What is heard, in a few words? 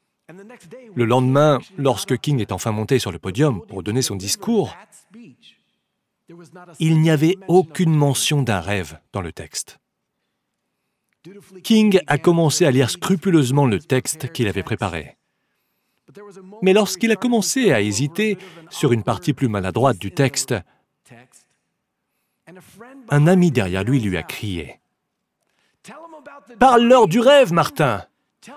Voiceover; Haugen leader summit